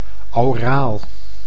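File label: "auraal", Dutch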